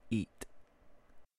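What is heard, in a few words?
"Eat", English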